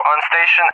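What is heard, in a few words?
"on station", English speech; Allied Flight; DCS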